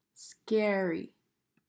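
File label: "Scary", English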